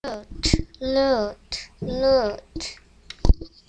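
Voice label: "lute", spoken word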